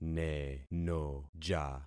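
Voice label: "nenoja", concatenated syllables; Affixing experiment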